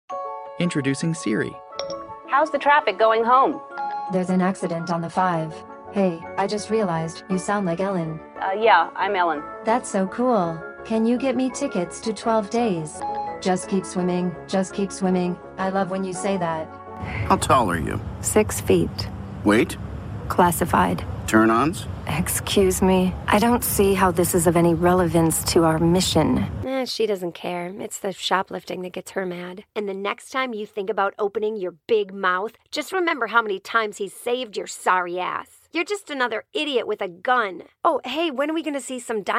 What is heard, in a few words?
english american animation